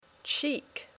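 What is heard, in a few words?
"cheek", English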